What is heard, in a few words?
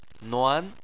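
"nuan" second tone, Chinese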